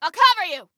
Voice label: Self Task Cover, Female

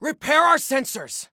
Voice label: Repair Sensors, Soldier Male; Soldier Male 01

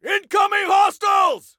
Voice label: Warn Enemies, Soldier Male; Soldier Male 02

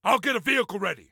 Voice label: Self Task Vehicle, Soldier Male; Soldier Male 03

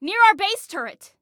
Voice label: Warn Enemy Near Our Base Turret, Female; Female 02

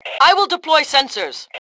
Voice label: Deploy Sensors, Female; T2 Female 02

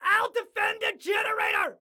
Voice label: Self Defend Generator, Excited Guy

